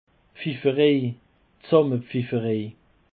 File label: "pfifferei", Alsace